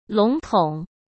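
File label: "笼统", Mandarin Chinese; chinese-flashcards; hsk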